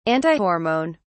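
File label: "antihormone", British English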